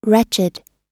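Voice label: "wretched", US English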